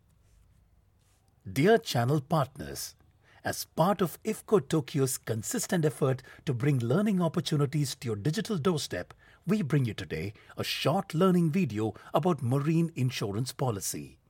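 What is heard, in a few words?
Malayalam, Accented English; voice demos; Golocalise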